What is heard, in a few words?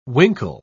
"winkle", pronounce